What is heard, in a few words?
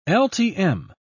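"ltm", British English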